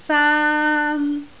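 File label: "saan1" Cantonese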